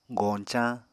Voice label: "goñcañ", Apatani

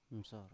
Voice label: "msor", Biak

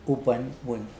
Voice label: "upwenwen", Mokilese

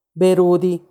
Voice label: "berudi", turkey, macuiltianguis

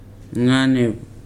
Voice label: "hair", Weri

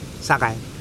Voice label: "dead coral", Mokilese

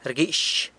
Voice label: "rguix", San Lucas Quiaviní

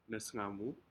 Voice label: "nesgaamu", Aneityum; shellfish

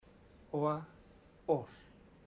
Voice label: you say ancient, chamacoco; Bahia Negra

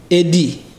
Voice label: "pig", Ibibio

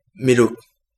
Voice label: milk, Kapingamarangi

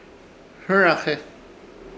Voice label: "Hɨraje" ojas de palma, Ishir Chamacoco

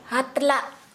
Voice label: Santali armpit

